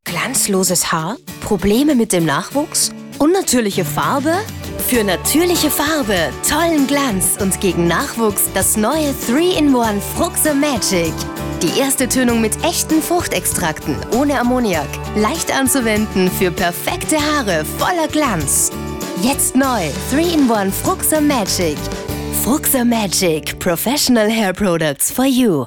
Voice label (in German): AT W Fremdtake Dynamisch